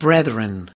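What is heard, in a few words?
"brethren", US English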